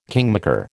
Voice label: "kingmaker", American

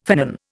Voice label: "finnan", Indian

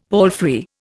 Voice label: "palfrey", Indian; Dictionary

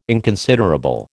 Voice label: "inconsiderable", American

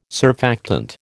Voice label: "surfactant", American

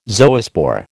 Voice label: "zoospore", American

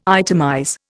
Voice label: "itemize", Indian

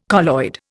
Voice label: "colloid", Indian

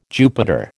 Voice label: "Jupiter", American

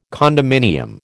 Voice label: "condominium", American

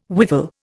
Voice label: "whiffle", Indian

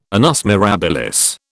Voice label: "annus mirabilis", British

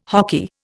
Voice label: "hoky", Indian

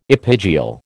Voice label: "epigeal", American

